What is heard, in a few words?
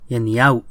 goodbye nahuatl